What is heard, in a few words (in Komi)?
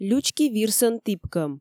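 "лючки вирсӧн тіпкӧм", Komi, female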